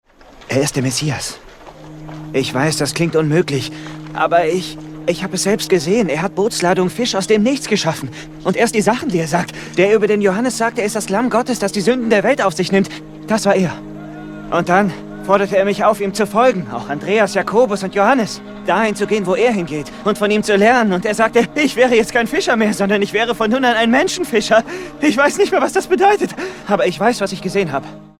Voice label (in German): Begeisternde Erkenntnis; The Chosen; Simon Petrus; Begeistert / Erleuchtet